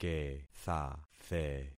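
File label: "kethathe", concatenated syllables; Affixing experiment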